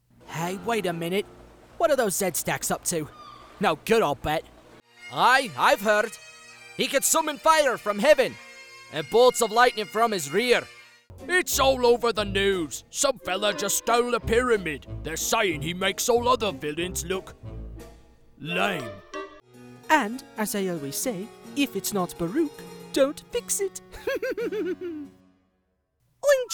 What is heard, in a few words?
Accent